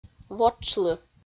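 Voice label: "вотшлы", Komi, female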